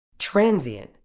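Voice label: "transient", British English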